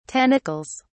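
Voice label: "tentacles", British English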